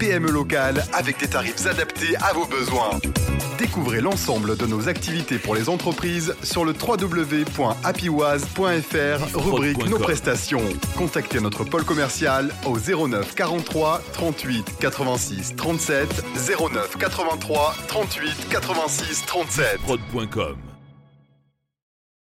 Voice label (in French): Radio Spot Regie; Happyness